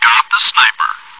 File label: chitchat; svencoop